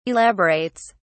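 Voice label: "elaborates", British English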